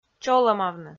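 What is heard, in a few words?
"чолӧмавны", Komi-Zyrian, female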